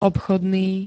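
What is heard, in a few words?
"obchodný", Slovak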